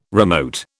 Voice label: "remote", British

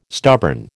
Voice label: "stubborn", American

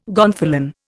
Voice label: "gonfalon", Indian

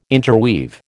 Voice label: "interweave", American

